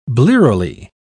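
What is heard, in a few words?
"blearily", British English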